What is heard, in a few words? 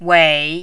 "wei3", mandarin